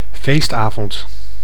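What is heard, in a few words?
"feestavond", Dutch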